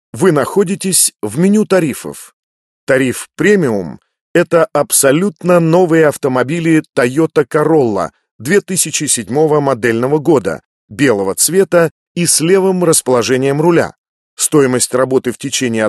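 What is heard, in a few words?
Russian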